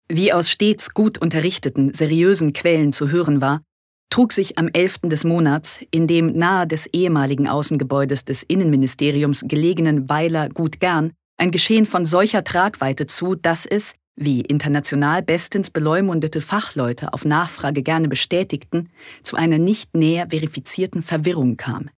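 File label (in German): Kommentar, Trocken, Neutral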